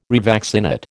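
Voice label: "revaccinate", American English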